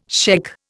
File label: "shaikh", Indian